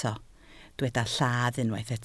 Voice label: "lladd", Welsh

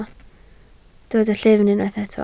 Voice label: "llyfn", Welsh

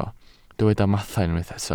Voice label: "mathau", Welsh

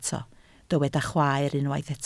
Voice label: "chwaer", Welsh

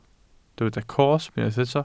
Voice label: "cosb", welsh

